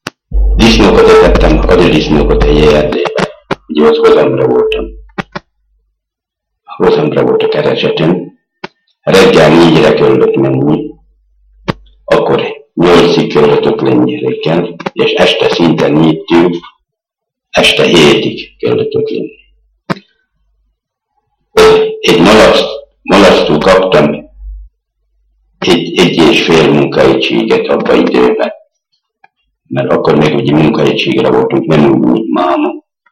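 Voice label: dialect collection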